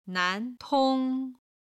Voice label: "nan2 tong1", Chinese; chinese travel